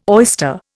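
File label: "oyster", Indian English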